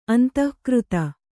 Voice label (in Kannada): "ಅಂದಗಾರ್ತಿ", Kannada